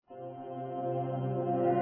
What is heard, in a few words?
English; Passion 2020